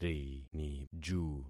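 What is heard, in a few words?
"riniju", concatenated syllables; Affixing experiment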